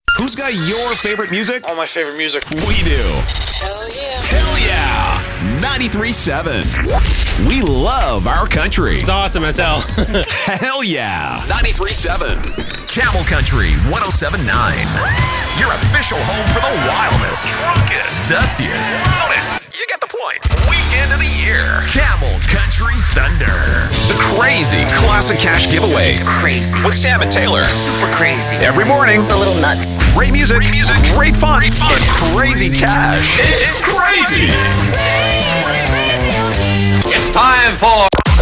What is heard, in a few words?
Voiceover